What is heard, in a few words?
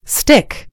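"stick", American English; gcompris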